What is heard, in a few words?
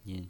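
"nin" neutral tone, pinyin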